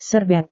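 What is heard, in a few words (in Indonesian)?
"serbet", Indonesian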